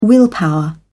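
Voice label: "willpower", British English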